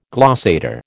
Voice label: "glossator", American

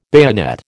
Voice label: "bayonet", American

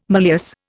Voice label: "malleus", Indian

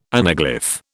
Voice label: "anaglyph", British

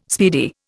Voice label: "speedy", Indian

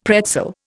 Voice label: "pretzel", Indian; Dictionary

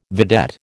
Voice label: "vedette", American

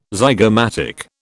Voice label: "zygomatic", British

